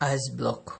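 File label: "ice block", Nigerian Pidgin, male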